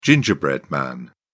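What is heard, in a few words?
"gingerbread man", English, British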